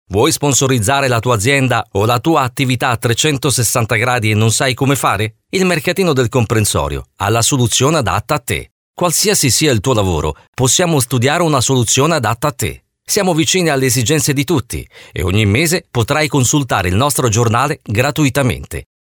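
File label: Italian, male, narration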